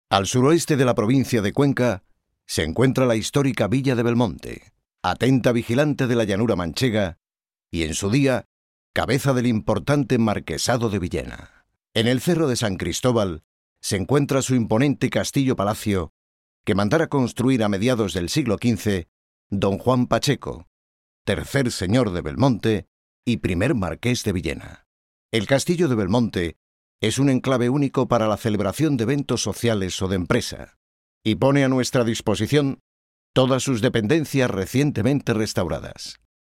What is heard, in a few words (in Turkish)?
Ispanyolca Erkek Ispanya; seslendirme